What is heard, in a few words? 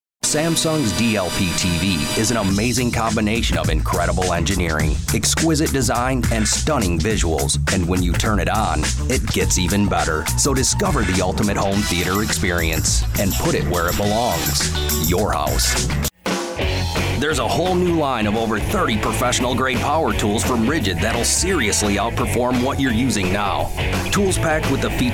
Commercial Male